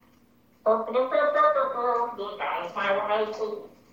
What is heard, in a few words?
memo voice